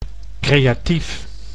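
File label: "creatief", Dutch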